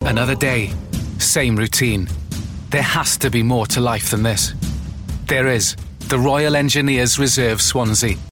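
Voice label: English UK, Advertisement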